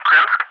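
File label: "Krymsk", English, ATC callsign; DCS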